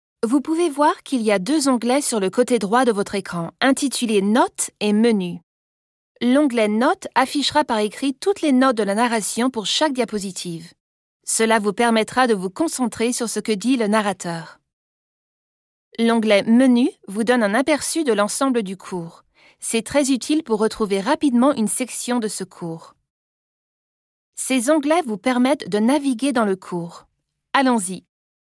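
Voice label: e-learning, French; GoLocalise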